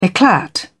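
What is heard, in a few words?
"eclat", British English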